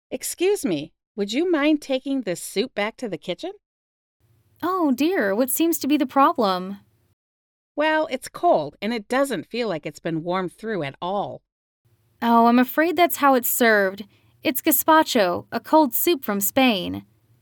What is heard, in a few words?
conversation; Unit 5